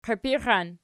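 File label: "qiipixan" nominative, Archi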